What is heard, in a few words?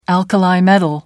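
"alkali metal", British English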